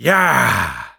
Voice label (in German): cheers, de; Sniper